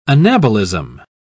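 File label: "anabolism", British English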